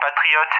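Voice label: "Patriot", English speech; Allied Flight; AirDefence; DCS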